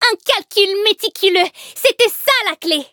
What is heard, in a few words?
victory, fr; cookie run